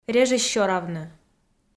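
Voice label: "режиссёравны", Komi, female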